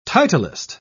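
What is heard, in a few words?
"titlist", British English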